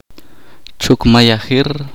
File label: "cazar", chorti